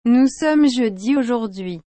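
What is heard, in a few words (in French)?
"Nous sommes jeudi aujourd'hui", French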